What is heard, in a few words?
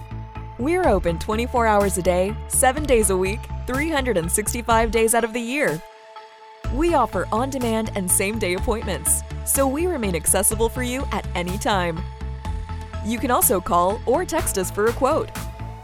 Mobile Voicemail